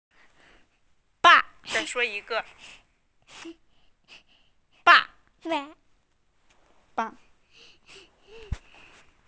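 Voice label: "ba4", Chinese, female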